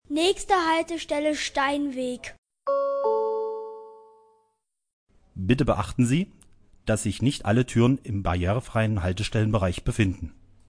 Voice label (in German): Neue Haltestellenansagen Beipspiel Haltestelle; Haltestelle Steinweg